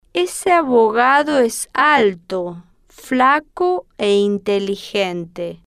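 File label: "ese abogado es alto flaco e inteligente", Spanish, female, slow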